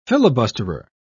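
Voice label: "filibusterer", English, British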